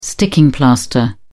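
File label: "sticking plaster", British English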